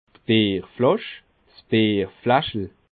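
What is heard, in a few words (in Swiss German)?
"bierflasch", Bas Rhin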